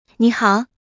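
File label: "nihao", Mandarin Chinese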